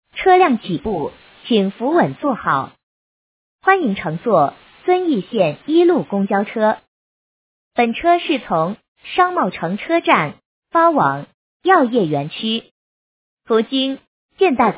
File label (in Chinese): 多媒体 广播提示 公交车 报站, 女国